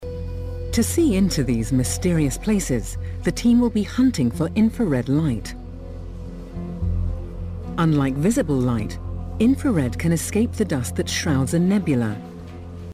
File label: narration